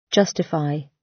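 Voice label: "justify", British; The arts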